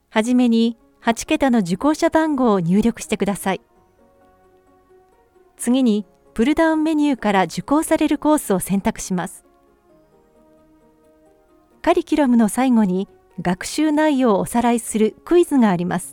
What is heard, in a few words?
voice sample e learning